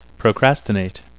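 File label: "procrastinate", English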